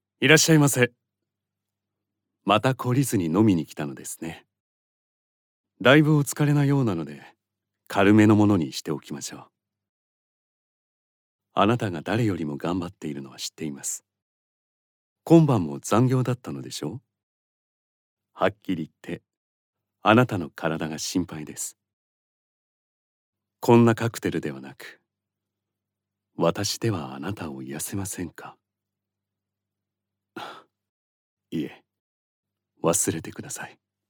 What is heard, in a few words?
male